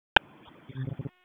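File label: Police Southeast District Dispatch, p25; wake p25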